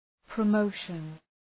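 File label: "promotion", English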